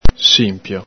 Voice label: "sinpio", Veneto

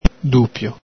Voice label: "dupio", Veneto

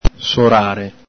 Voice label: "sorare", Veneto

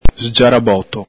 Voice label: "sgiaraboto", Veneto

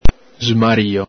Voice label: "smario", Veneto

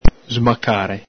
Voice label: "smacare", Veneto

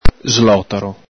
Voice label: "slotaro", Veneto

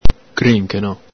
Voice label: "crincheno", Veneto

